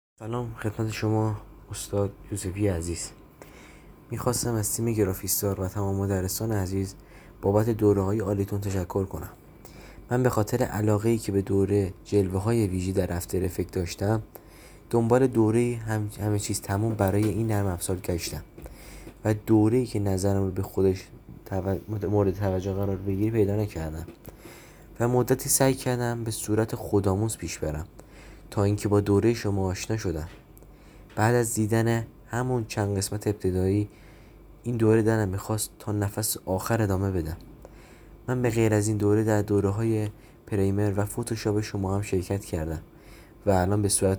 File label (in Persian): صدای مشتریان راضی